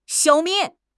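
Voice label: "消灭", Mandarin Chinese; HSK6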